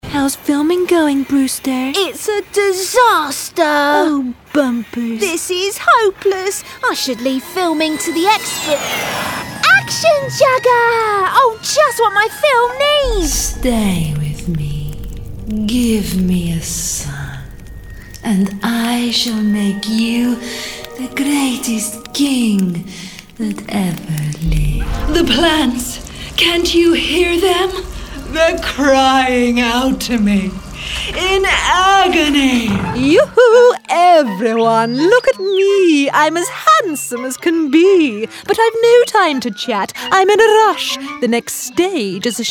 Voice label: Character

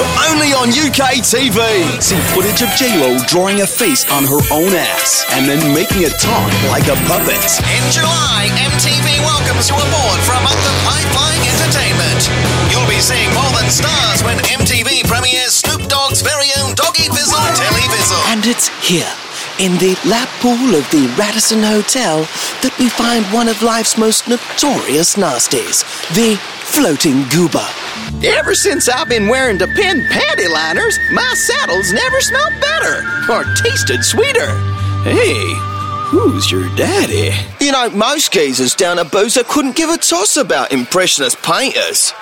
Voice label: character, Male voice actor

